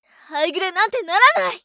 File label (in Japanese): ハイグレなんてならない！, 姉; ハイグレボイス集